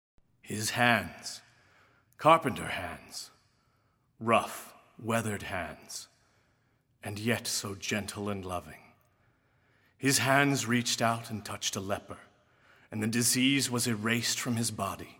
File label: Monologue; Thaddaeus Lebbaeus; living last supper